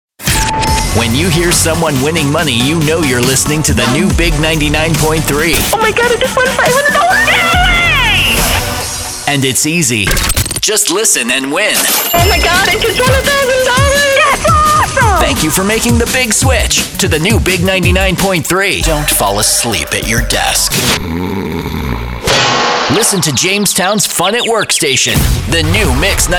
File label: Male Voice Over Talents Radio Imaging Hot AC